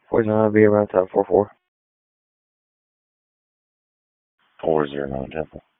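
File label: Police Dispatch; Wake Forest Police Disp; p25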